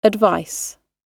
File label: "advice", Pronunciation